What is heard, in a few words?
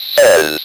"says", Speak & Spell